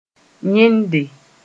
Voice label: "yẽnde", moore